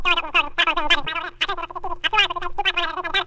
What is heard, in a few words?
chipmunk talk, Voice gibbrish